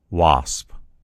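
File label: "wasp", American English; gcompris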